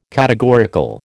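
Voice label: "categorical", American English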